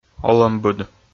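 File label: "олӧмбыд", Komi, male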